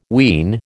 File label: "ween", American